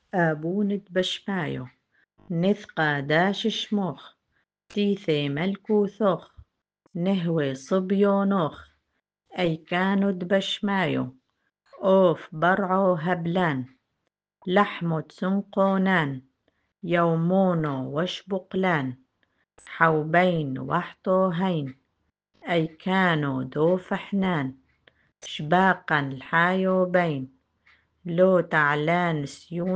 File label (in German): Vaterunser Gegruesset seist du Maria Aramaeisch